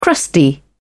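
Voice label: "crusty", British English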